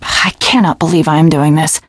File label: opening door, teengirl; video game; Left 4 Dead; Source Engine; survivor